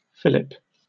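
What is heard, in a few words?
"fillip", British English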